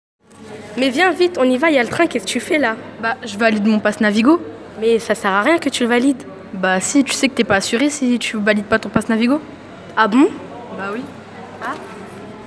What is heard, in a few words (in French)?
Annonce